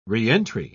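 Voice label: "reentry", English, uk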